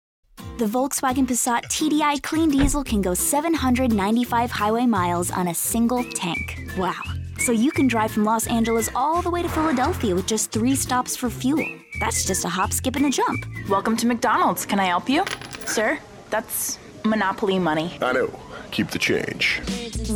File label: commercial voice